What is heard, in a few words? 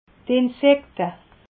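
"insekte", Alsatian, Haut Rhin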